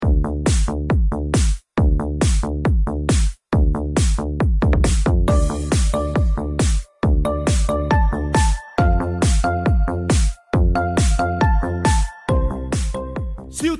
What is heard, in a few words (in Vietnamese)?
Tieng rao quang cao sieu thi dong gia 10k